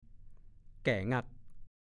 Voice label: "แก่งั่ก", ภาษาโคราช; แก่มาก ชรามาก

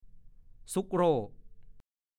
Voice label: "ซุกโร่", ภาษาโคราช

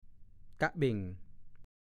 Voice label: "กะเบ่ง", ภาษาโคราช; 1. พอง ขยายตัว เบ่ง

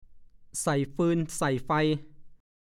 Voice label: "ใส่ฟืนใส่ไฟ", ภาษาโคราช; เผาศพ ในอดีตการร่วมงานเผาศพต้องเอาฟืนไปด้วย

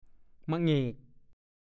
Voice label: "มะเหงก", ภาษาโคราช; คำปฏิเสธหรือแสดงความไม่พอใจพร้อมกับยกมือทำมะเหงก เหงก ก็พูด

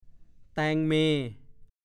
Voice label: "แตงเม", ภาษาโคราช; 1. ตังเม

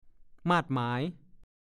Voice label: "มาดหมาย", ภาษาโคราช; ดูคำว่า มาด

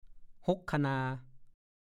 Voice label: "ห๊กคะนา", ภาษาโคราช; คันนา

